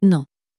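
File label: "non", French, female; flashcards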